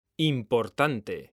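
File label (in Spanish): "importante", Spanish, male; mekanta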